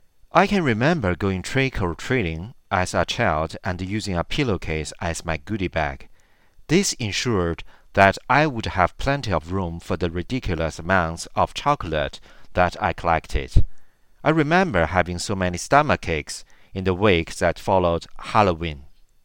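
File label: Chinese accented English; GoLocalise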